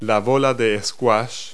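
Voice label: "squash ball", English words; Multi-Lingual Talking Picture Dictionary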